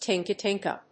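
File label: "Tinca tinca", English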